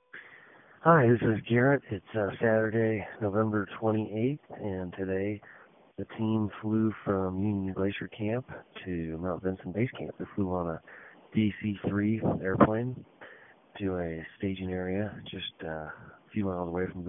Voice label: voicemail